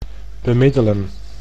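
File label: "bemiddelen", Dutch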